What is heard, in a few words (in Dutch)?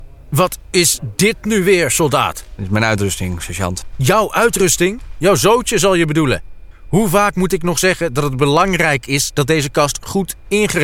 Karakter Hoorspel Audiodrama